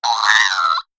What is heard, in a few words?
Female Kobold Death